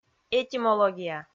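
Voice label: "этимология", Komi, female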